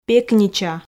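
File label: "пекнича", Komi, female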